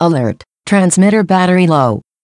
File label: Siri Alert Transmitter Battery Low; Alarm Sounds; LoopFollow